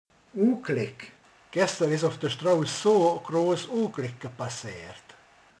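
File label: "baleset", Hungarian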